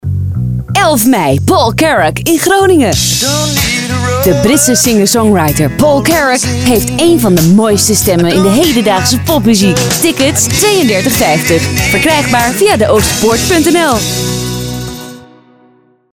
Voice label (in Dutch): Commercial